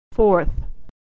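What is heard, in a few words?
"fourth", American English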